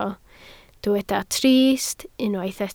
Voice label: "trist", Welsh